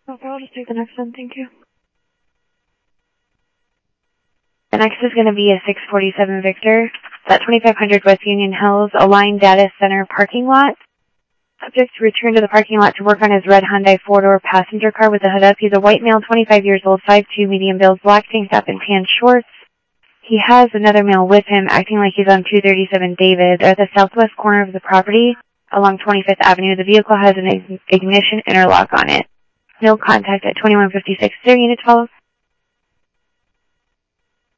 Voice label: Phoenix PD, scanner audio